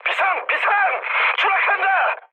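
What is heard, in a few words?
Korean aircraft destroyed mood medium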